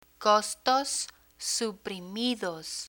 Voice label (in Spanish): costos suprimidos, Spanish